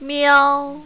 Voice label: "miao1", mandarin, female

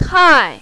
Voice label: "tai4", mandarin, female

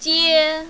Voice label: "jie1", mandarin, female

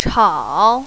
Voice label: "chao3", mandarin, female

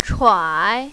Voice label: "chuai3", mandarin, female

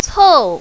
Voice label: "cou4", mandarin, female

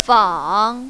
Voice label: "fang3", mandarin, female

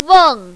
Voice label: "weng4", mandarin, female

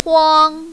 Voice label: "huang1", mandarin, female

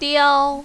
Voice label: "diao1", mandarin, female

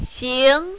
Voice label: "xing2", mandarin, female

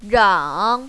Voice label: "rang3", mandarin, female

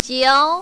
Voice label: "jiao2", mandarin, female

